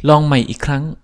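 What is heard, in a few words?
check answer, Chinese; gcompris-data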